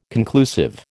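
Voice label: "conclusive", American English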